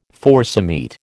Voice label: "forcemeat", American

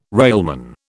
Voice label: "railman", British

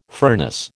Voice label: "furnace", American

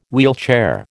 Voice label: "wheelchair", American

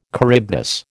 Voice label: "Charybdis", American

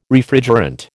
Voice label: "Refrigerant", American

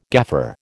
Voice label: "gaffer", American

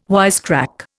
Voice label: "wisecrack", Indian

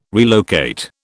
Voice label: "relocate", British

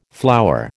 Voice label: "flower", American

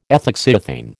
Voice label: "ethoxyethane", American

